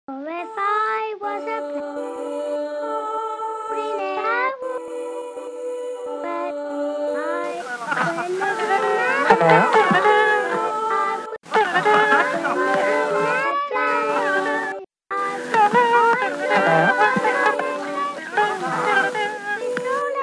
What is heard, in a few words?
Telephone Recording; Interactive and generative sound installation; The Big Cellar Collective; Porthmeor